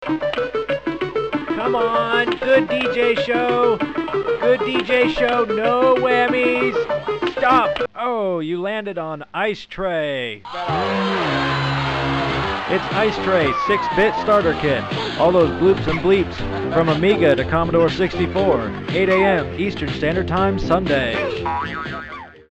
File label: promo